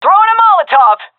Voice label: throwing molotov, seal fem; vo; Counter Strike Model Pack; CS:GO